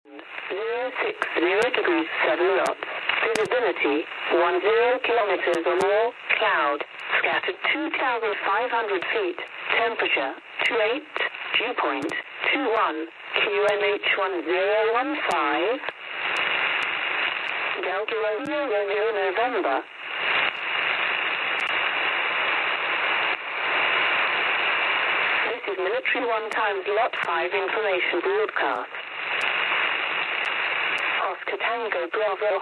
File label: Volmet 11253 kHz; RAF